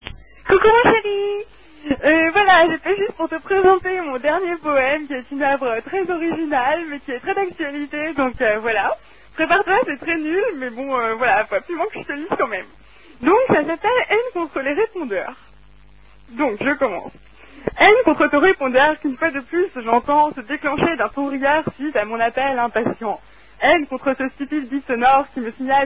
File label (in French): repondeur